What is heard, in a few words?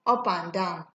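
"up and down", Nigerian Pidgin, female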